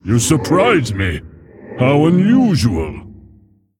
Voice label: Male Mogu wow